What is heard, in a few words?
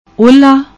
"uhla", Punjabi; ਓਲ੍ਹਾ